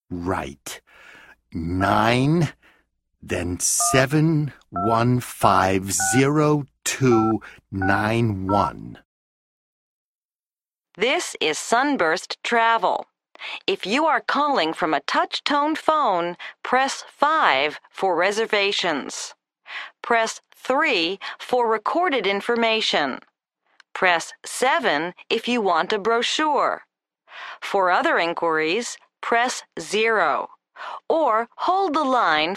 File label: Telephone, english listening survival